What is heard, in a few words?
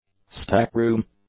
"stack room", english; nouns